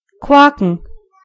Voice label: croak, de; gcompris

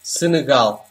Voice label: "senegal", pt; gcompris; geography

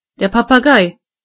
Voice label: parrot, de; gcompris

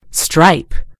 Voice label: "stripe", en GB; gcompris

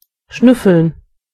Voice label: sniff, de; gcompris

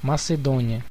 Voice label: "macedonia", pt BR; gcompris; geography

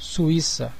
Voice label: "switz", pt BR; gcompris; geography

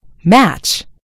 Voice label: "match", en GB; gcompris

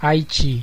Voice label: "haiti", pt BR; gcompris; geography

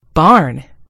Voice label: "barn", en GB; gcompris

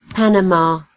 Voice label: "panama", en GB; gcompris

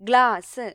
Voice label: "glass", ml; gcompris

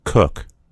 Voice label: "cook", en US; gcompris

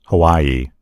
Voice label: "hawaii", en_US; gcompris; geography

